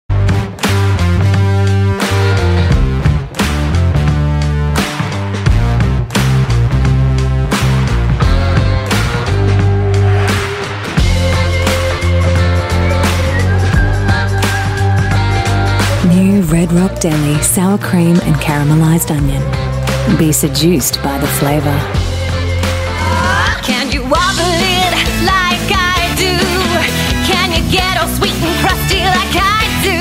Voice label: AU English TVCs

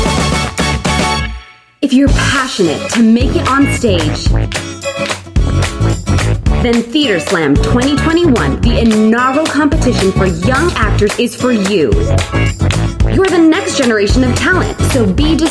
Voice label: Mid-Atlantic English; MindChamps Theatre Slam

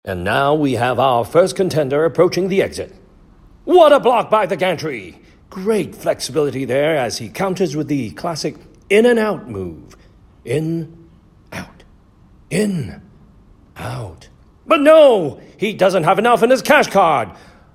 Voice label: Asian English Commentary; Clean

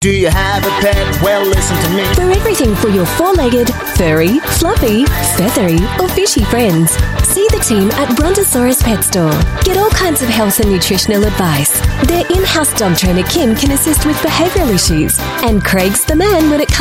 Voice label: AU Mid Atlantic English, Australian, female; Brontosaurus Pet Store

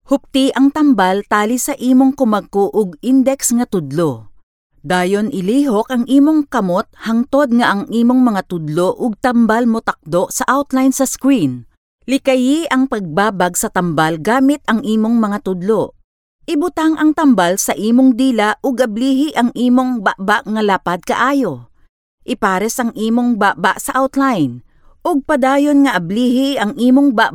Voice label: Cebuano Voice Sample, female

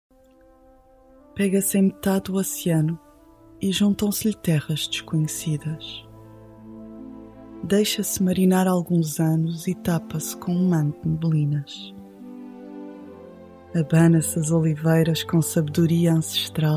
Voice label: Portuguese Commercials

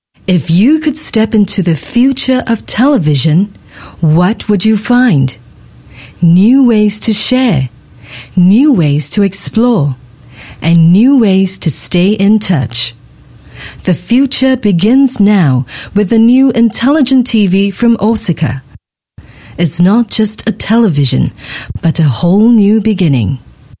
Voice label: Asian English TV Product

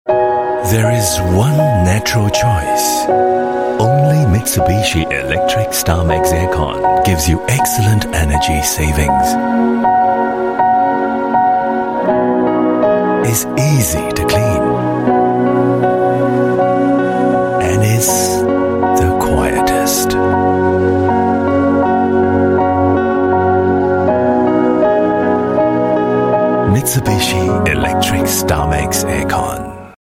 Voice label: Asian English; Mitsubishi Starmex

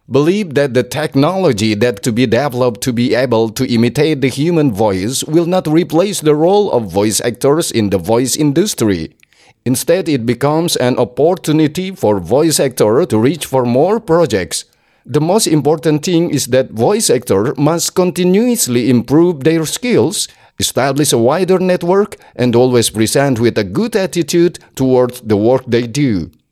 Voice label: Bahasa Indo English; Bahasa Indonesia

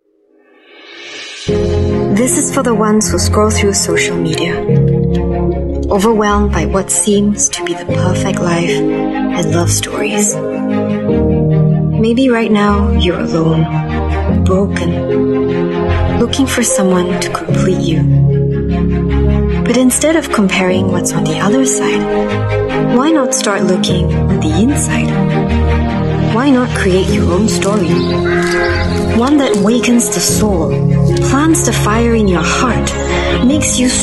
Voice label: Asian English; Inspiration Project

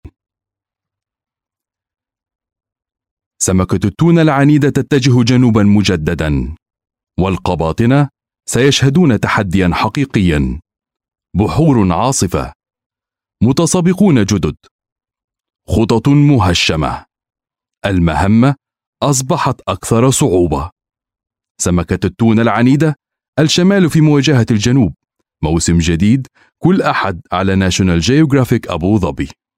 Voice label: Arabic Fusha Promo Ad

